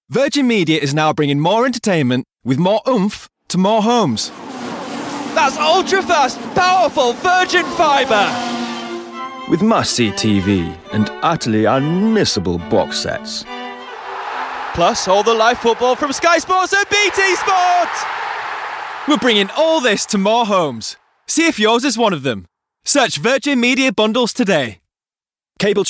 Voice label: commercial

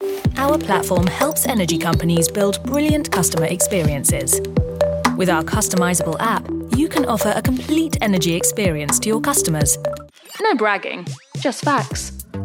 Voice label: explainer corporate narration

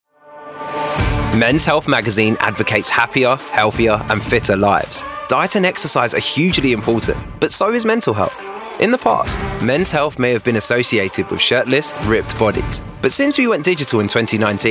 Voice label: estuary voice corporate

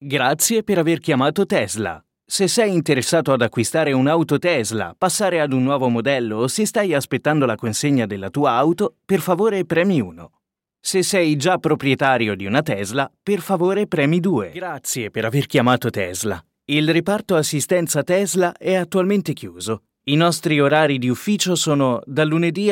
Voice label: Italian male, telephone

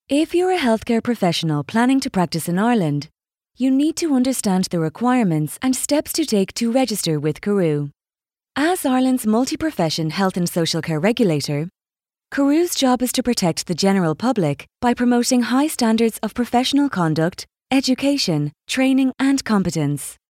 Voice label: irish voiceover elearning

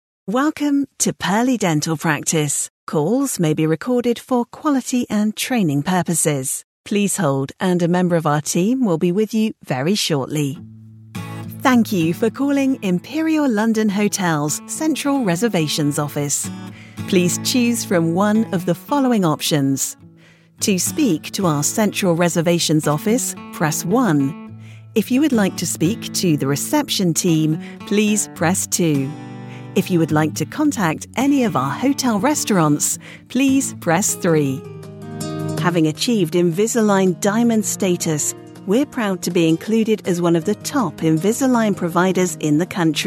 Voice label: telephony, voiceover; telephony demo

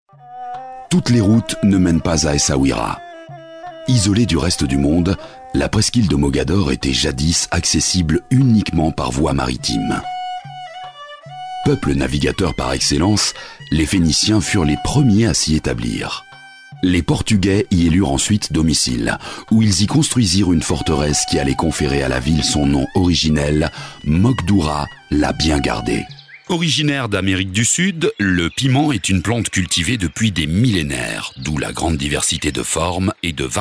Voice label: french documentary, French Male Warm

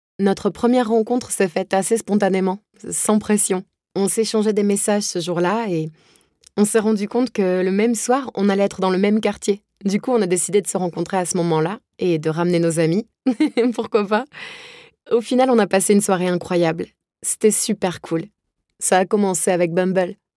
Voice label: french voice natural

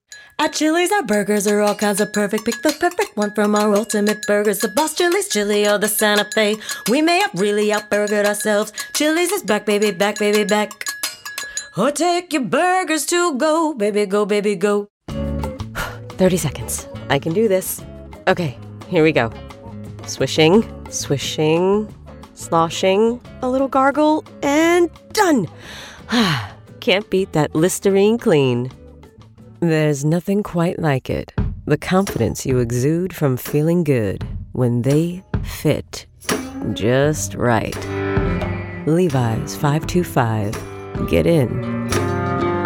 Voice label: american voice commercial

